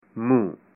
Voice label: mouth, punjabi